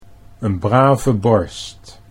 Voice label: "n brave borst", Dutch

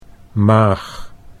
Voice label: "maag", Dutch

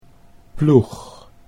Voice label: "ploeg", Dutch

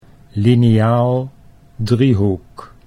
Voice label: "lineaal driehoek", Dutch

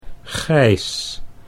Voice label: "Gijs", Dutch

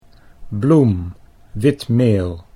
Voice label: "bloem wit meel", Dutch

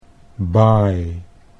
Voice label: "buy", Dutch English

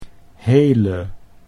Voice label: "hele", Dutch

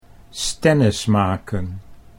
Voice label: "stennis maken", Dutch

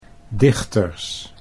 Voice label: "dichters", Dutch

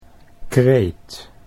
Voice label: "kreet", Dutch

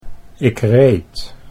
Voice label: "ik reed", Dutch

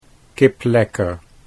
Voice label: "kiplekker", Dutch

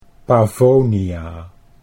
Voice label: "Pavonia", Dutch

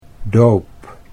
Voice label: "doop", Dutch; 'baptism' think of: 'dip'

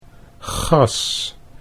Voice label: "gas", Dutch

